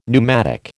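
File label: "pneumatic", American English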